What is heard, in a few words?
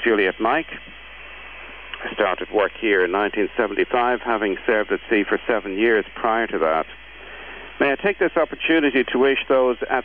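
final transmission, Marine Audio HF; Malin Head R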